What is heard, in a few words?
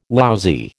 "lousy", American